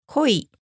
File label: "koi", japanese; fish